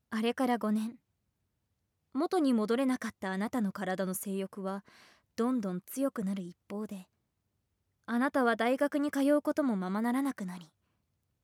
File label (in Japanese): 吐息なし; TS催眠音声; 今日からキミがワタシになるの。さぁ、おちんちんにお別れのキスをして♪〜発情家庭教師♀と入れ替わり〜